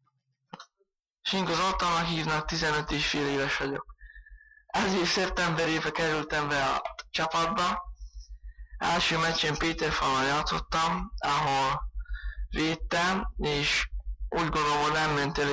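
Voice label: dialect collection; nyelvjaras gyujtesek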